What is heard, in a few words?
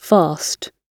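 "fast", British English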